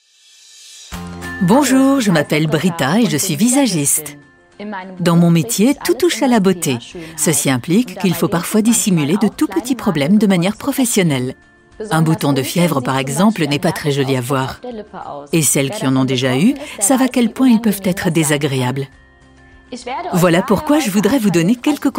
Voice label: VOICE OVER; Compeed